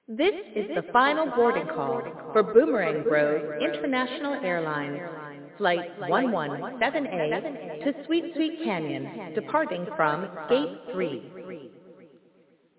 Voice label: SFX Sunshine Airport PA; Mario Kart 8

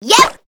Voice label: Cast Voice; Monster Squad

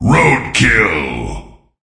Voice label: Voices Announcer Reward

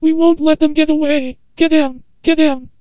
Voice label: Antenna Voice

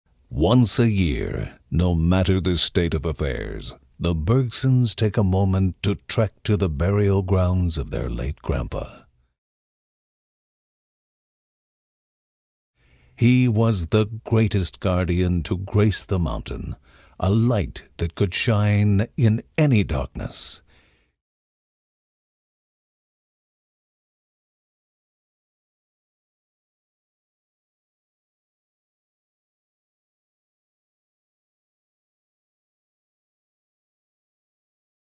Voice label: Grandpa Req narration; children of morta; Procedural Events

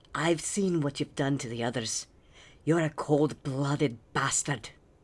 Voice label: Male Beggings; a dump in the dark

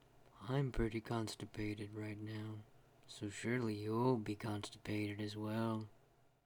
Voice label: Voices Female Beggings

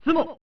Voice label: "Tsumo!" (Male); pro mahjong kiwame 2; snes

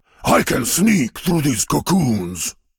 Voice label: Voice Monolog

